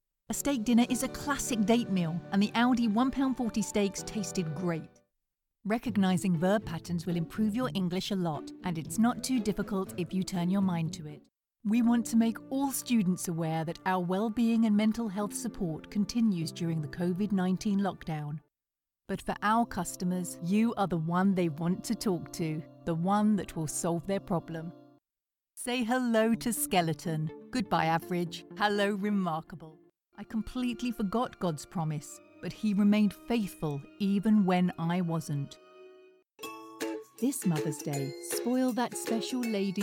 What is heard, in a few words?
Corporate Commercial VO